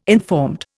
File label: "informed", Indian